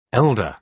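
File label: "elder" British English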